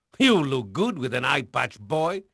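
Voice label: Portuguese male, voice; Pirates Of The Caribbean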